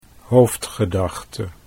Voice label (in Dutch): "hoofdgedachte", Dutch